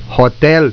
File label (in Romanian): "hotel", romanian; reizen